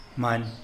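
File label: "many", Tlacochahuaya Zapotec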